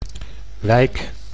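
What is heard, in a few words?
"wijk", Dutch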